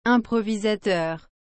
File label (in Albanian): "improvisateur", frengjisht